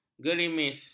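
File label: "gerimis", Malay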